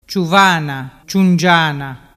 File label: "ciuvanaciungiana", dialettu